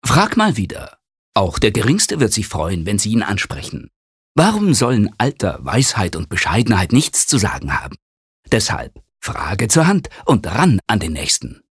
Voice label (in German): Werbung, Dynamisch